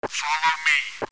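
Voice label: voice commands sounds; v igre counter-strike 1.6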